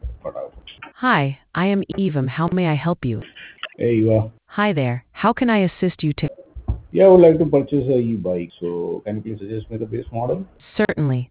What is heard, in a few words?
conversation